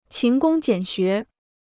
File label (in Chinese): "勤工俭学", Chinese